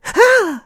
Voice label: surprised; Scrat